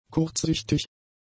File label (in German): "kurzsichtig", German; adjectives